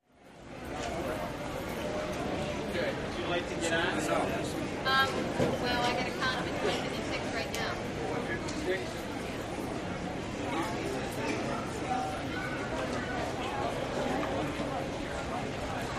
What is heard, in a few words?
Airport Interior PA, pages